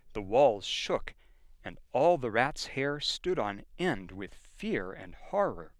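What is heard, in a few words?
General American accent; The walls shook and all the rats' hair stood on end with fear and horror.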